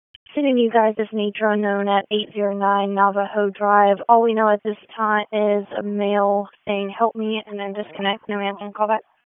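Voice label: Raleigh Police Northeast District Dispatch; wake p25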